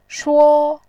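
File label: "shuo", Mandarin Chinese, first tone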